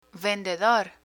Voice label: "vendedor", Spanish; vocabulary professions